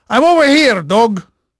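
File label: Portuguese male, voice; Pirates Of The Caribbean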